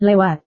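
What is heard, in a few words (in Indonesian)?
"lewat", Indonesian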